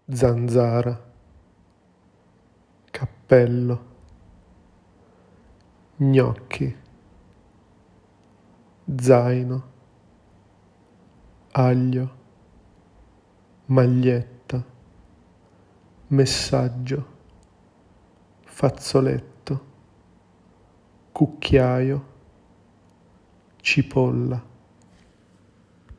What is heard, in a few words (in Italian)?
pronuncia, italian sounds and spelling